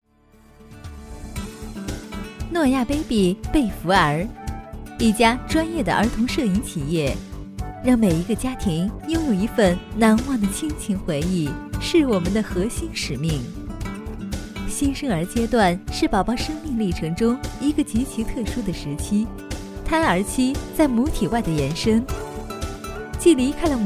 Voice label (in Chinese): 女 广告 亲切 淑女 端庄; BABY贝福儿